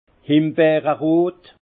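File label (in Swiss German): "himberrot", Alsace Haut Rhin; framboise; vin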